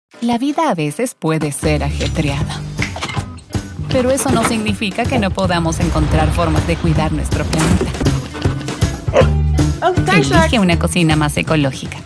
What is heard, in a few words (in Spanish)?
Locutora